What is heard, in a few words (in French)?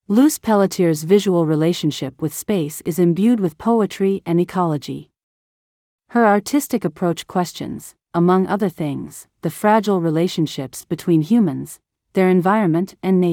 anglais